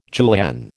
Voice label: "julienne", American